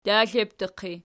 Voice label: "daxlekes pot", Archi